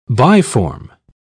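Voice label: "biform", English, British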